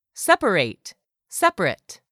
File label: "SEPARATE", english; 3000 words